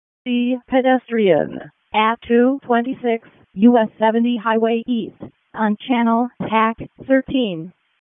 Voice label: EMS ALERT

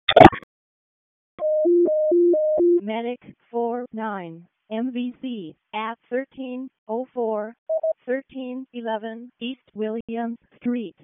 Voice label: EMS ALERT, p25

